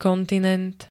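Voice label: "kontinent", Slovak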